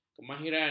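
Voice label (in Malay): "kemahiran", Malay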